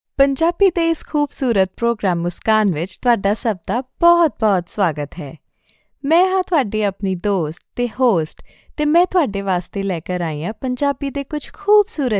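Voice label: Punjabi, female, commercial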